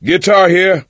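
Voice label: melee weapons, voice, male; Left 4 Dead 2; coach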